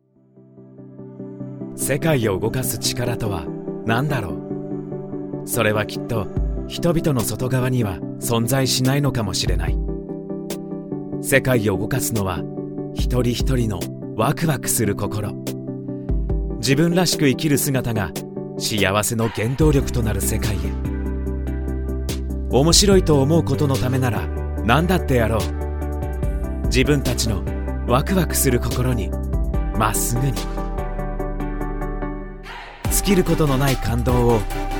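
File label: Japanese corporate; GoLocalise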